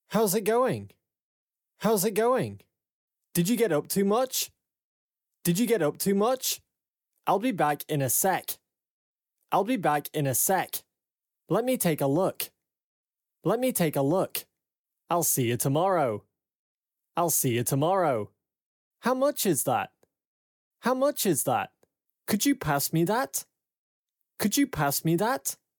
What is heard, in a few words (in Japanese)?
イギリス人 男性